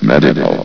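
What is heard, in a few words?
"medical", male synthesized voice; vox; source engine; hl1; video games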